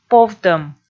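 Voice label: "повтӧм", Komi, female; kpv audio dict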